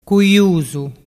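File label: "cuiusu", Vernazzese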